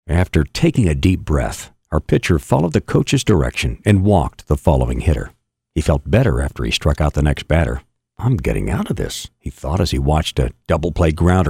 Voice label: audiobook sample

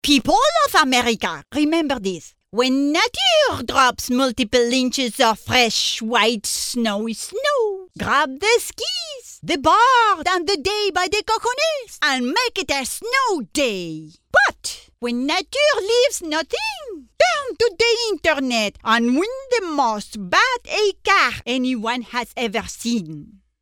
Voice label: French accent character

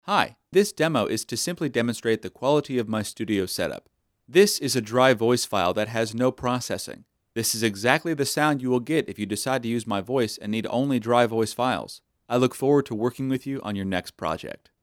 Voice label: Studio Quality

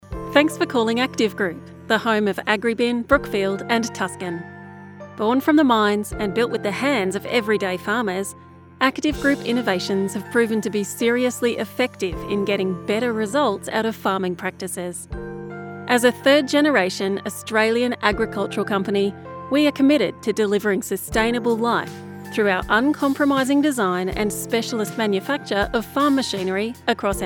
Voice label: On Hold Music And Voice Loop; Active Group

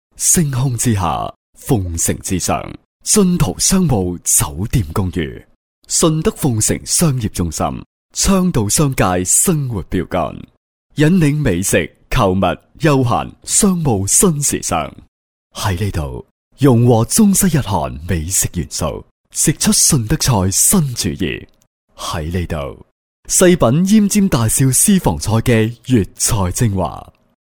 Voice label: Cantonese Naration

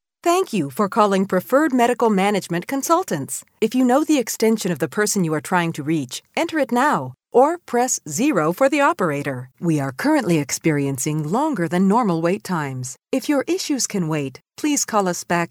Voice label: ON HOLD

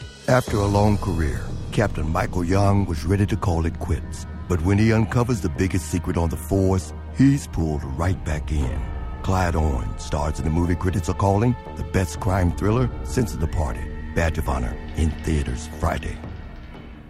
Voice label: movie trailer

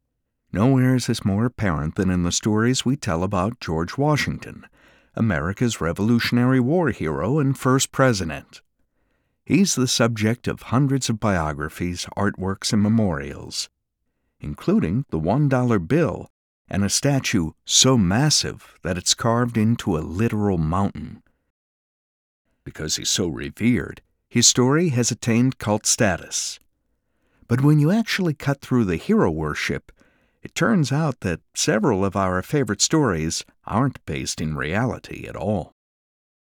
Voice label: History Bio Docu

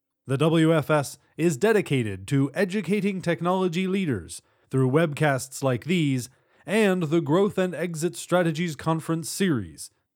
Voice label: Corp Conference presentation

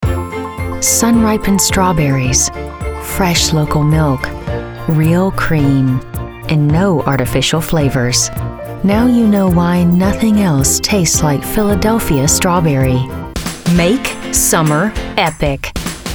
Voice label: commercials

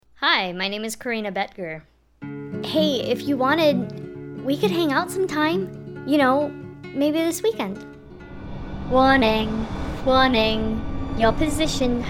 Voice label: Character reel

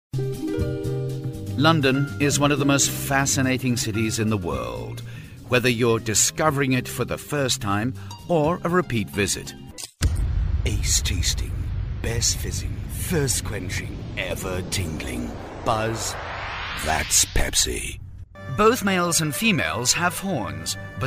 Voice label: Travel Documentary

